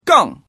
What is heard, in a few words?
"GANG", boy; ErRenmj